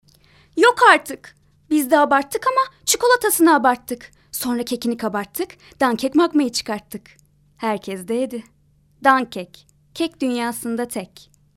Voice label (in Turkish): reklam